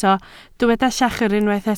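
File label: "llachar" Welsh, spoken word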